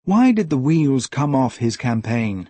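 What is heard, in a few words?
wheel, British English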